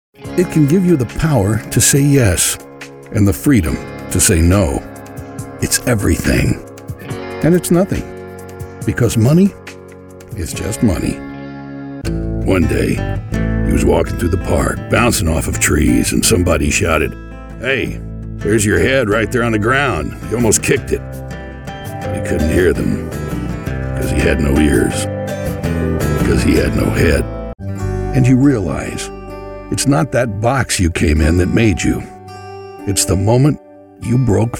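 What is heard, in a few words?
Narration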